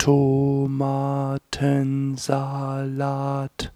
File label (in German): "tomatensalat", speech